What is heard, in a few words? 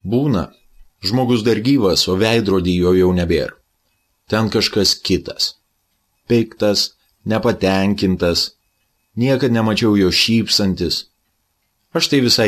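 Lithuanian; Lithuanian, Male, 30s-40s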